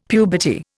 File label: "puberty", Indian; Dictionary